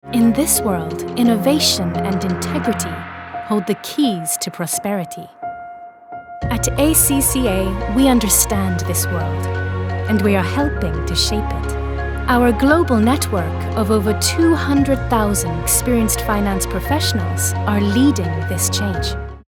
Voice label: corporate; ACCA

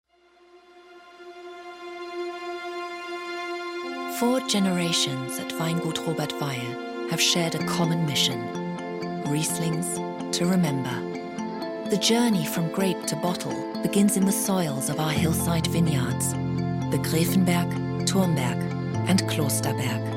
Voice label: english

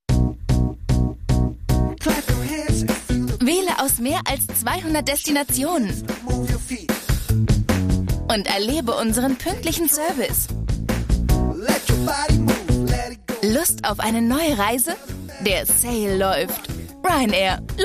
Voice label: TVC; RyanAir

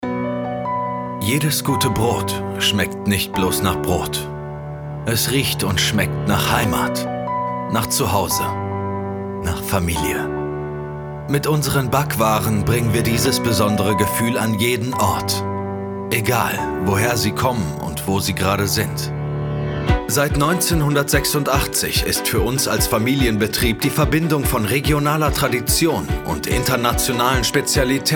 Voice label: Image, Commercial (Werbung), Off, German; Goldback

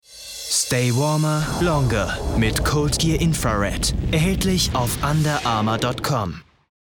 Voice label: commercial off; under armour reminder

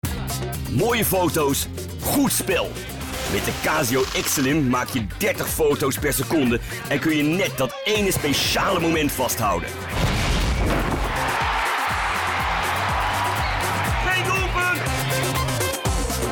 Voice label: Dutch, Mittel plus (35-65); Leipzig

